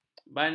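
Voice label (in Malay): "bandar", Malay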